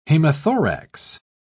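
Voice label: "hemothorax", English, British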